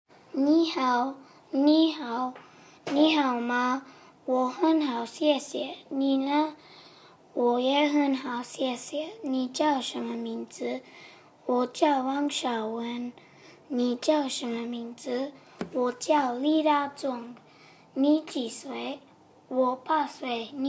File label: Mandarin homework